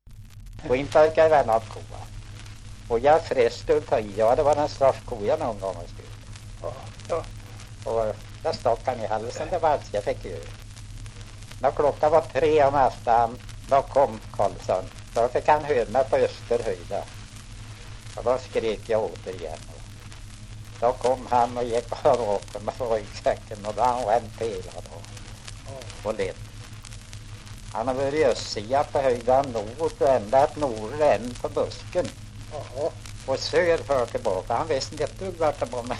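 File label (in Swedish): Sunnemo Värmland, dialekter; svenska sagor; Folkminnen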